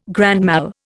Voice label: "grand mal", Indian